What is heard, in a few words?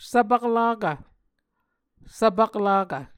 "svpaklakv", Muscogee